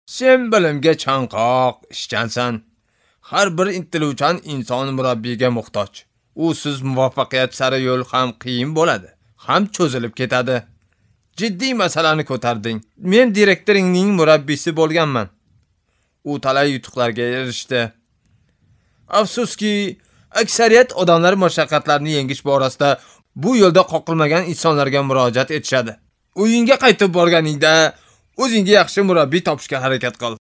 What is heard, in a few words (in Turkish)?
Ozbekce Erkek, seslendirme